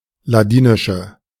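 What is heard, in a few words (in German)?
"ladinische", German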